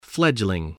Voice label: "fledgling", English